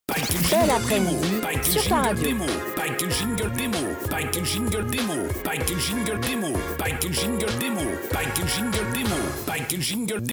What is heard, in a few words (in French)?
Bel après-midi sur ta radio ! voix femme; jingle radio généraliste animateur voix homme et femme